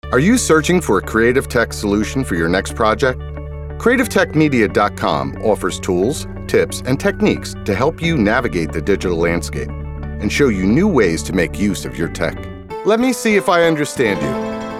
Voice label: Commercial